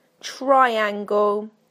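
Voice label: "triangle", British English; PictureDictionary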